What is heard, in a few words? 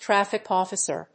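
"Traffic Officer", English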